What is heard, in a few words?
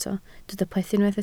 "plethu", Welsh